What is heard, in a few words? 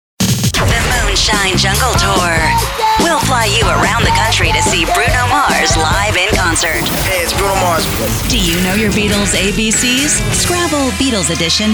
Radio Imaging